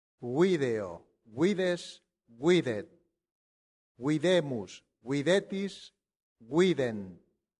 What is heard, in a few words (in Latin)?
"video", latin; santillana